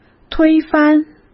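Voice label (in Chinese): "推翻", Mandarin Chinese; chinese-flashcards; hsk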